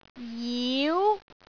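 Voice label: "jiu2", Cantonese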